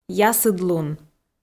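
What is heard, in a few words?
"ясыдлун", Komi-Zyrian, female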